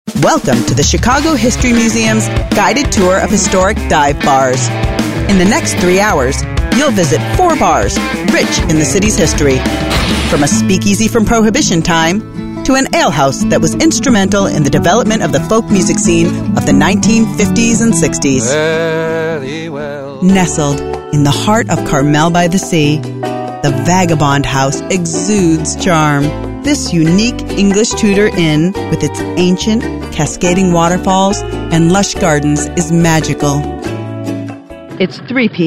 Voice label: 美式英语女声

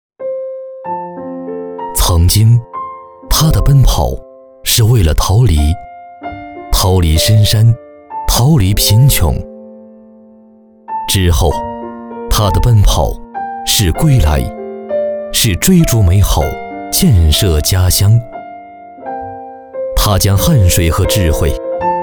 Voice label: 国语 男声 专题片-深情唯美-电视节目旁白 大气浑厚磁性 沉稳 娓娓道来; 疫情防控工作汇报

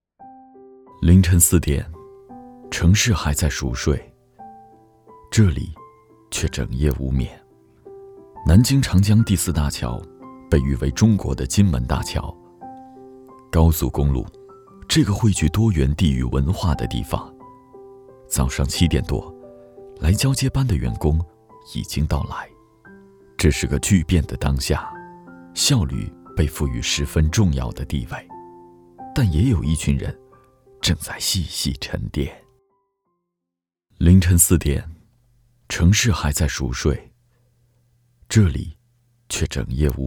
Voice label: 男声 内心独白—农夫山泉风 低沉 调性走心 感人煽情 素人, 国语

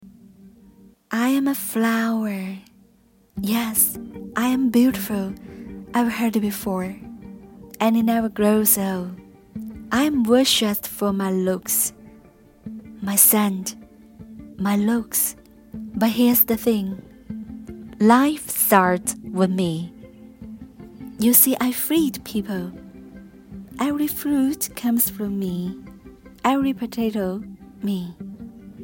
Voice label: 英语女声Audiobooks 娓娓道来 积极向上 亲切甜美; 英语女声Audiobooks-flower

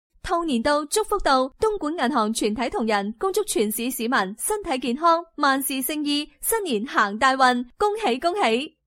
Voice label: 女粤16 港式粤语广式粤语Elderlywomen 娓娓道来 积极向上 时尚活力 亲切甜美

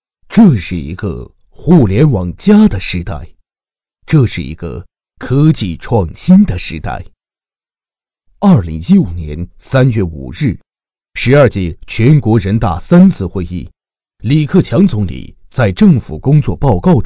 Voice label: 国语 男声 专题片 互联网 电子商务浑厚讲解 干音 大气浑厚磁性 沉稳

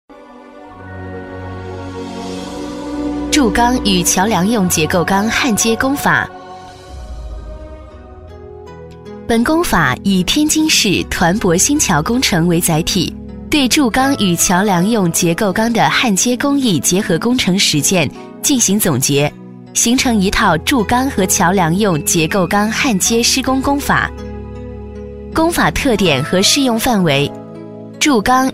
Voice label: 女S112 国语 女声 课件-多媒体课件产品讲解 清脆官方 积极向上 亲切甜美

